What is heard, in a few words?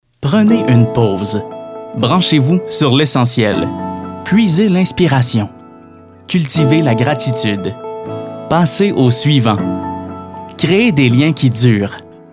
radio